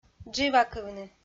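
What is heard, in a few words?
"дживакывны", Komi, female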